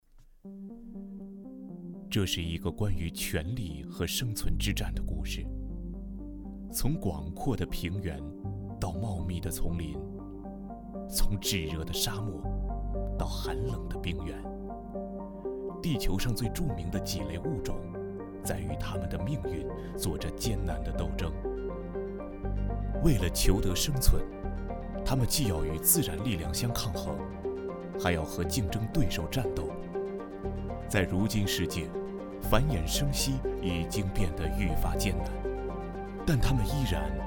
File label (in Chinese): 纪录片